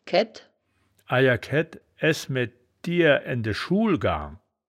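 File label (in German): "kaedt", wittlicher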